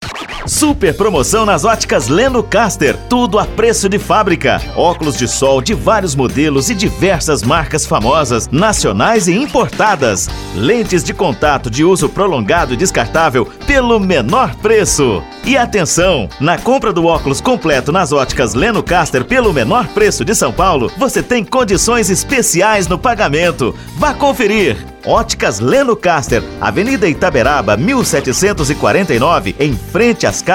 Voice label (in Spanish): Locutor spot